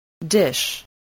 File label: "dish", spelling